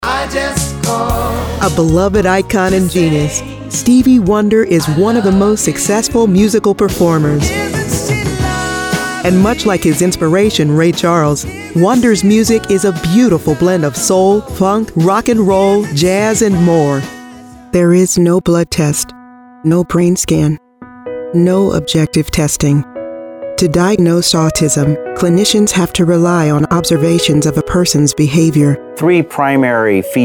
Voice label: TV Narration, voiceover

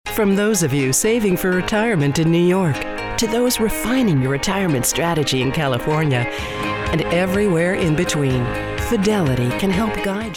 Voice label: Professional Knowledgable; Fidelity

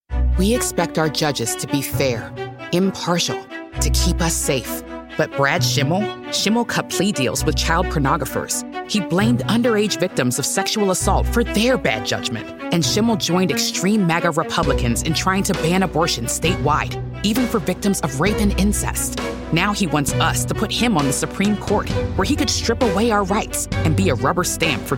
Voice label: Political Audio Spot; voiceover; Keep Us Safe

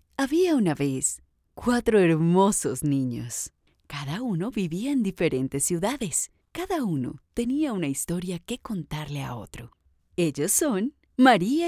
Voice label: Kids Spanish

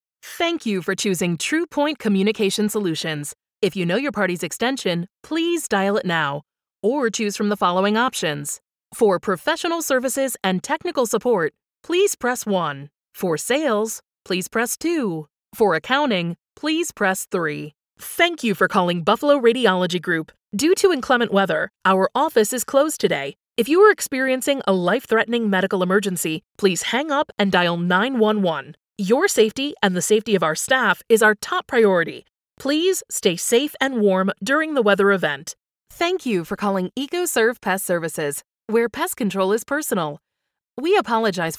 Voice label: Telephony IVR

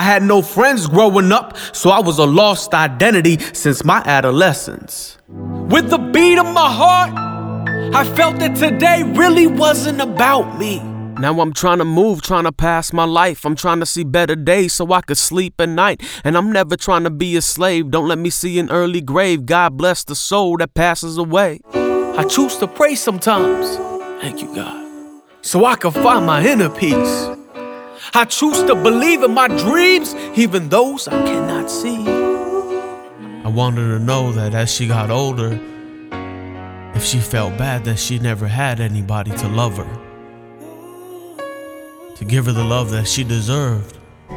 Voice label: Poetry and Rap

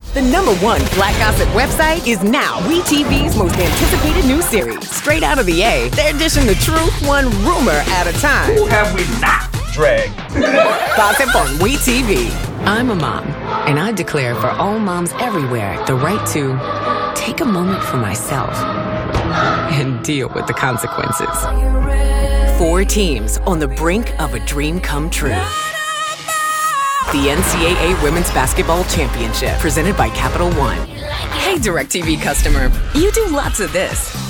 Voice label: AFRICAN AMERICAN, voiceover

